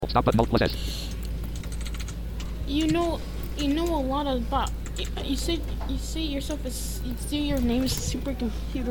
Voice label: interview with tech failure goes very very hulariously wrong